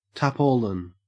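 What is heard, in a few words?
"tarpaulin", Australian English; pronunciation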